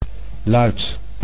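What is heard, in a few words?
"luid", Dutch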